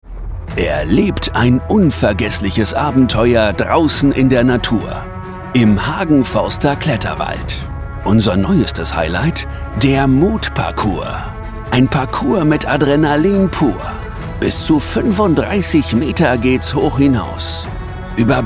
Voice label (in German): imagefilm gross stark musik